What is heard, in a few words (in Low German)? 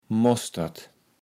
"Mostert", Platt